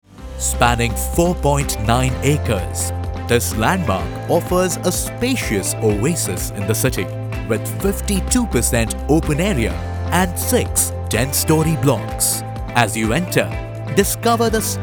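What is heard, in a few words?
english real estate, male; Hindi Voice Actor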